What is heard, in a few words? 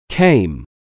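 "came", English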